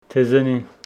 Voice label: Homshetsi fig tree